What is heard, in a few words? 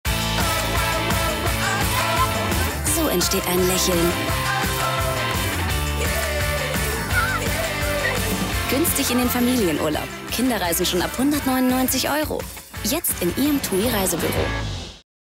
tvc; tui